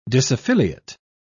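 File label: "disaffiliate", British English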